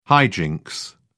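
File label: "high jinks", English, British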